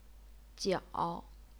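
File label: "jiao", Chinese, third tone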